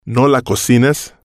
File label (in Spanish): no la cocines, Spanish